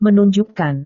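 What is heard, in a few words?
"menunjukkan", Indonesian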